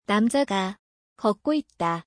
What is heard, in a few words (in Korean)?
the man is walking, ko; 걷다あるくWalk